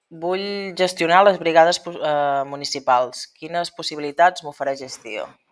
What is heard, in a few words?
voice message